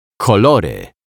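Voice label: "kolory", Polish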